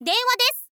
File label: "denwadesu", girl; voice